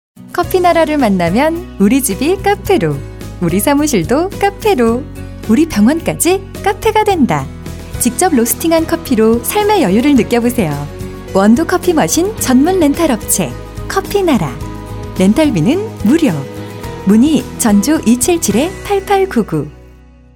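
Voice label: Korean, female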